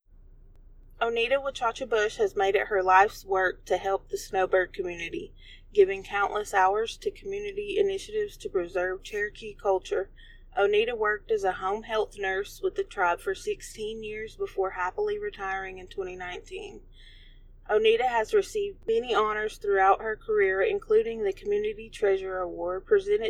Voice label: english